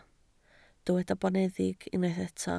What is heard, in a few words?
"boneddig", Welsh